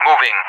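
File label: "moving", English; DCS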